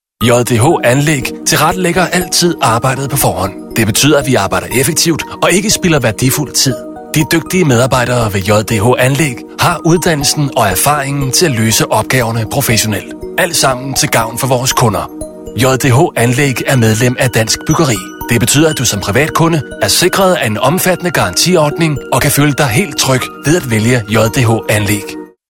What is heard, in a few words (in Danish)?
Virksomhedsfilm Demo